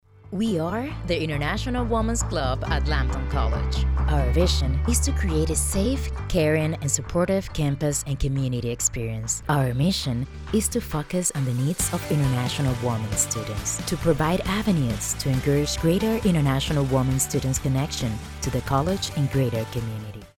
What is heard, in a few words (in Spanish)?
Narracion; International Women Club